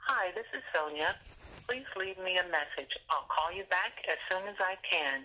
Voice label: voice on her outgoing phone message